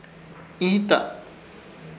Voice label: "Ihita", Ishir Chamacoco; pecho teta

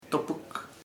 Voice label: dead body, chamacoco; un muerto

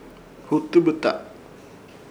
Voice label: "Hutubɨta", Ishir Chamacoco; cumbre remolino de cabello

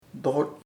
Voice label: itch, chamacoco; picor

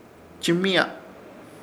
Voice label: "Chɨmiya", Ishir Chamacoco

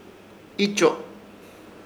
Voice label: "Icho", Ishir Chamacoco; maduro

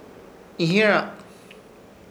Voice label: "Ihyerak", Ishir Chamacoco; novio

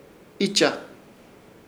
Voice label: "Icha", Ishir Chamacoco; dejar algo

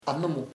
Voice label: grandchild, chamacoco; nieto

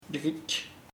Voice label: reed, chamacoco

